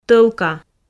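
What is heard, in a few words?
"тӧлка", Komi, female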